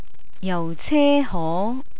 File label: "jauceho", Cantonese; entertainment